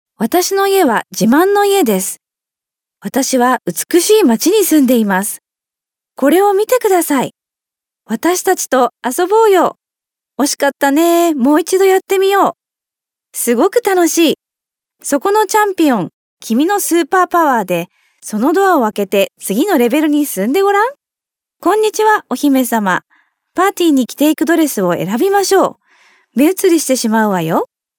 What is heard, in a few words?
JP fem children